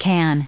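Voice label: "can", US English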